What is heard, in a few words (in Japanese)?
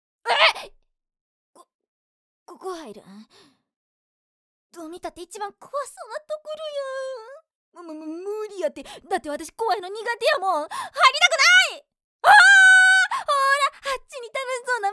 関西弁女子